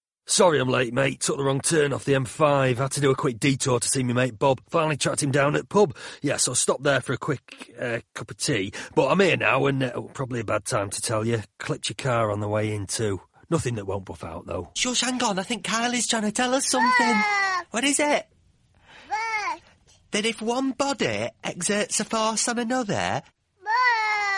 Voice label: English M Character Accents; voice over; accents of england